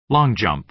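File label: "long jump", American English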